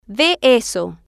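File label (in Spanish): ve eso, Spanish, female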